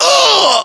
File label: death, voiceovers english